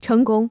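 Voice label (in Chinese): "成功" Chinese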